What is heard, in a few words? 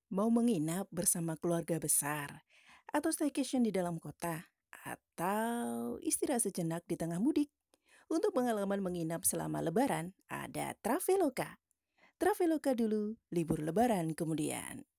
Indonesia casual